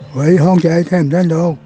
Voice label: voice message; father memorial